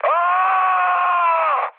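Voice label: Serbian damaged pilot mood high, speech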